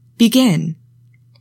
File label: "BEGIN", english; 3000 words